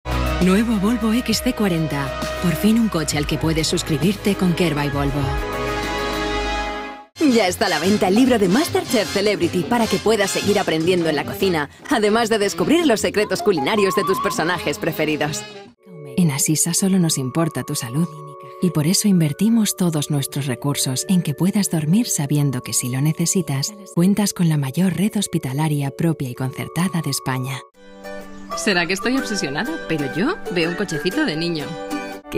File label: Spanish Castilian, voces femeninas